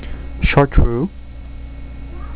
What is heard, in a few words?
"shar-TROO", chartreux sounds